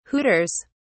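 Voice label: "hooters", British English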